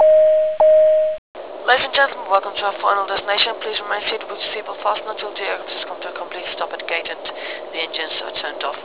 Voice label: land, announce; A350

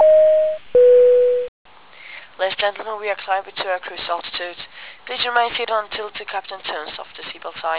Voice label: climb, announce; A350

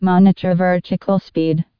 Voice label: monitor vertical speed, tcas, female

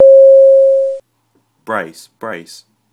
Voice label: brace, announce; A350

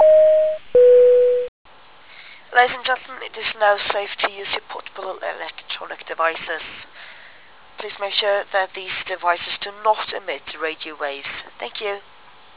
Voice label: cruise, announce; A350

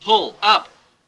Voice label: pull up, GPWS; A32X; GPWS-OLD

